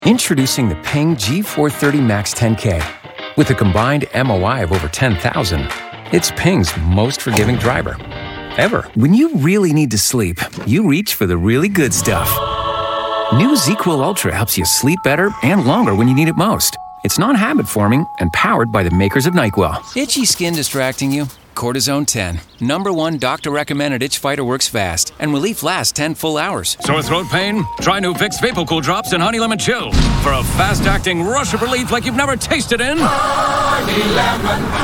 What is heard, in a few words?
Commercial